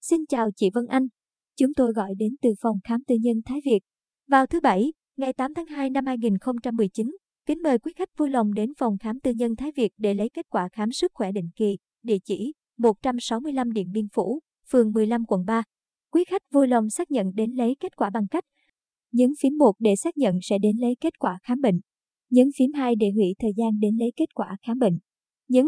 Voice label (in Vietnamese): Auto call thông báo